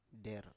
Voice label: "der", Biak